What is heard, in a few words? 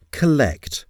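"collect", English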